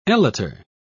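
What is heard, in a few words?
"elater", British English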